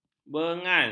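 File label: "benggal", Malay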